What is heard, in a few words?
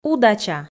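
"удача", Komi, female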